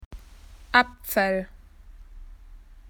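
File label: "apple", Australian English; PictureDictionary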